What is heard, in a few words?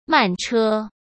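"慢车", Mandarin Chinese; hsk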